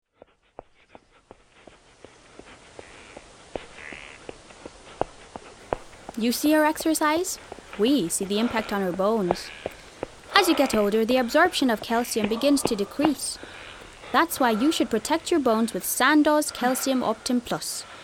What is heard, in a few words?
irish